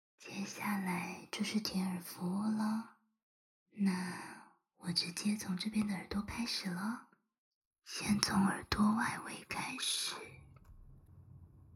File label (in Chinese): 右耳舔耳, ASMR; 要是您不能好好享受，可就枉費我的服務囉